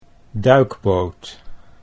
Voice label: "duikboot", Dutch